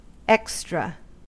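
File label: "extra", US English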